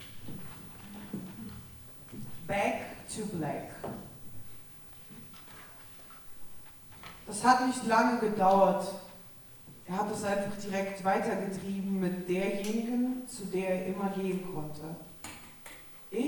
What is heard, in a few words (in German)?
Ansage